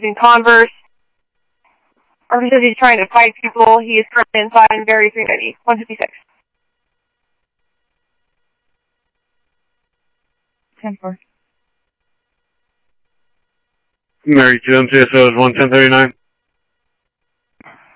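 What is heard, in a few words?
police radio dispatch, Scottsdale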